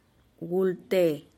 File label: "gulteh", tlacochahuaya; torcaza type of bird